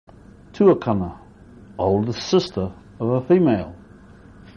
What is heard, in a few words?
"tuakana", Maori